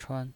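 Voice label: "chuan", Mandarin Chinese pinyin voice, neutral tone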